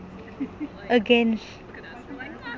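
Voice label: "again", Vietnam, female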